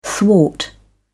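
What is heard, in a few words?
"thwart", British English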